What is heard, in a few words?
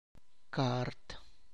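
Tlacochahuaya Zapotec; letter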